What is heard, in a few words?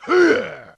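"huh", vocal sample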